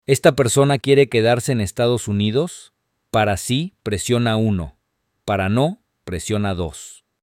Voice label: Spanish, hotline